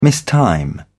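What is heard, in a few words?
"mistime", British English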